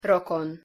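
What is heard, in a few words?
"rokon", Hungarian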